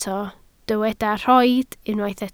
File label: "rhoid", Welsh